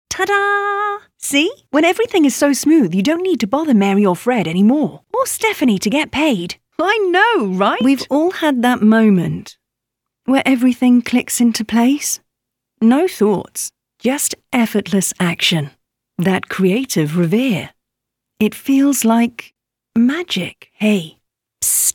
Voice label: E-Learning